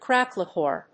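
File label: "crackleware", English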